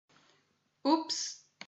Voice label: "oops", portuguese